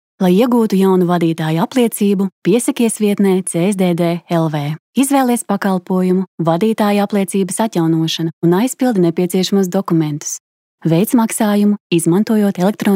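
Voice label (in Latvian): Infografika Latvian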